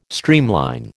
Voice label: "streamline", American